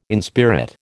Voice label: "inspirit", American